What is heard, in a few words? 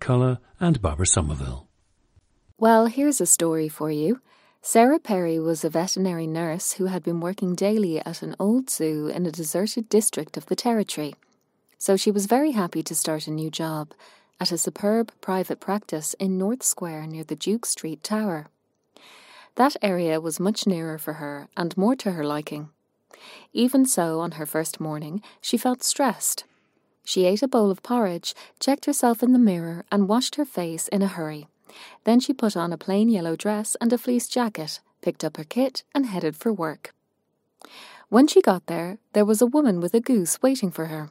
ireland, dialects